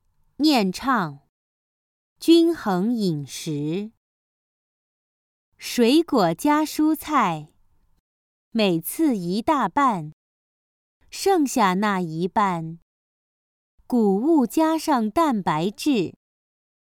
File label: Chant; Balanced Diet